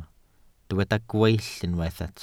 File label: "gweill", Welsh